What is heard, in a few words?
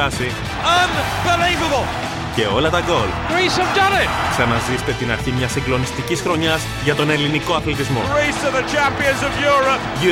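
Greek Commentary; EL; GoLocalise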